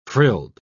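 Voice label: "frilled", British English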